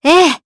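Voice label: Vox Aff Japanese; kingsraid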